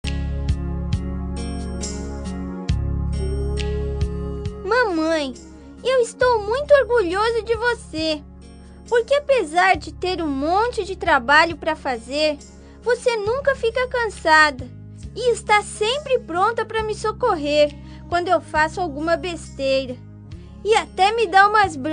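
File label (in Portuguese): voz infantil mae masc, Telemensagens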